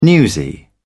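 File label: "newsy", British English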